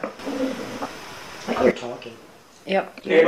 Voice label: MUMBLE